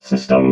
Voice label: "system", robot